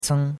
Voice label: "ceng1", chinois; Cours de Chinois

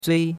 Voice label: "zui1", chinois; Cours de Chinois

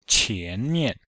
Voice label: "qian2 mian5", Chinese

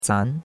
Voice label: "zan2", chinois; Cours-de-Chinois

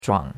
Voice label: "zhuang3", chinois; Cours-de-Chinois

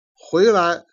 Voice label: "jian", Chinese

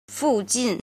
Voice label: "jin", Chinese

